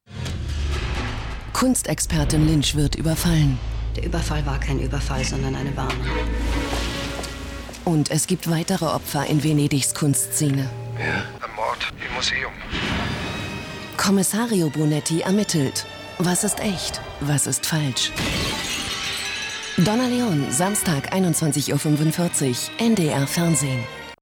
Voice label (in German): ndr programmtrailer; donna leon